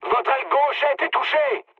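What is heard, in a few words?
left wing, mood high, French; War Thunder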